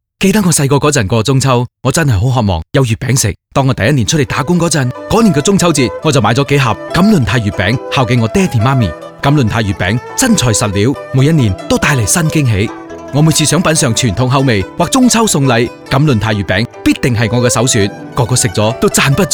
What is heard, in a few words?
MOON CAKE PROMO CHI